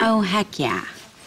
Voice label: "oh heck yeah", expletives, g-rated swears; Voice

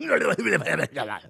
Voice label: hudahblehblehblehbly, gibbrish; Voice

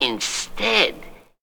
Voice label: "instead", cartoon

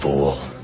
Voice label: "fool", voice; expletives; gary busey

